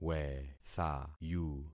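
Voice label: "wethayu", concatenated syllables; Affixing experiment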